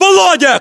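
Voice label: "volodya", battlechatter, Russian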